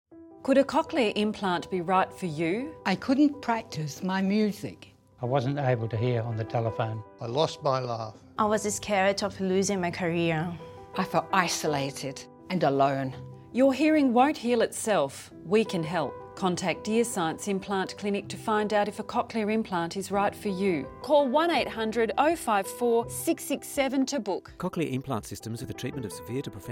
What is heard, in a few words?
Radio Commercial; ESIA; Disclaimer